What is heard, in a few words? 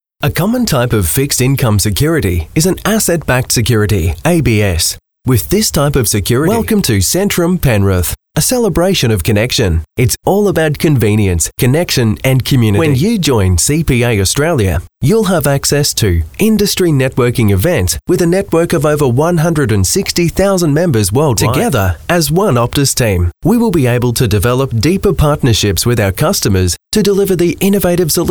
CORPORATE NARRATION SELL